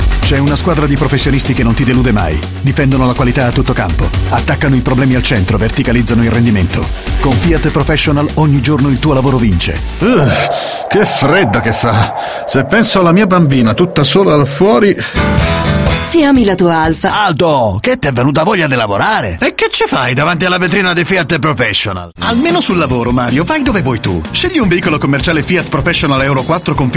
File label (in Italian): radio comunicati